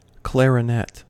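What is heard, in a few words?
"clarinet", English, US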